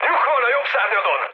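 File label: Hungarian right wing mood high, speech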